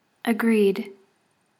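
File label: "agreed", English pronunciation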